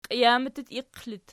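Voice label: "qijamiq" superessive, Archi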